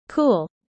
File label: user fast